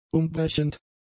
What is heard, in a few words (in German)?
"umbrechend", German; adjectives